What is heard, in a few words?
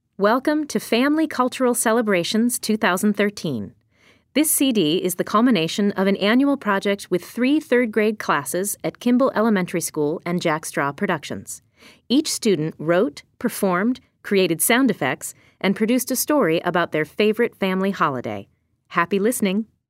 Opening Narration; Kimball Elementary Family Cultural Celebrations 2013